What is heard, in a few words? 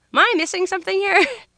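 "am I missing", spoken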